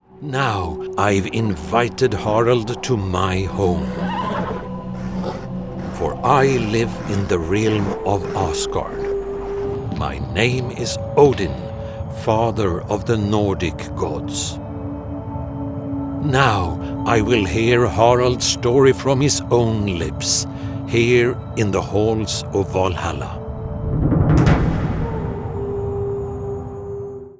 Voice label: Male Swedish Voiceover Scandinavian English Character God; Odin